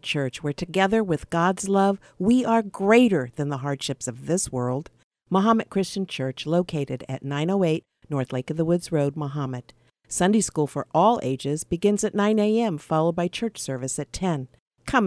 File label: BULLYING VOICE